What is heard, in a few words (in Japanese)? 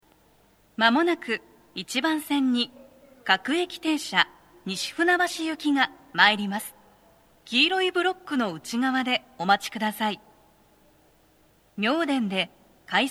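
接近放送, 女声, 運転士用スピーカーでの収録です; 放送は「各駅停車 西船橋行」です。妙典で、快速 東葉勝田台行きの通過待ちを致します。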